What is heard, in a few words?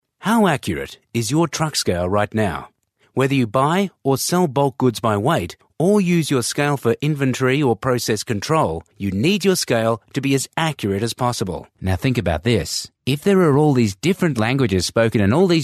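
Australian English, male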